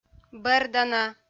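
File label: "бӧрдана", Komi, female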